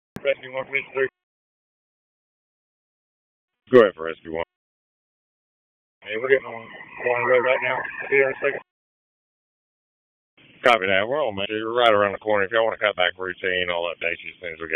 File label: FIRE OPS, p25; wake